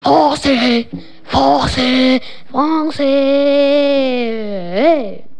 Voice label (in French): francais hey